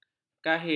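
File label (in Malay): "kahin", Malay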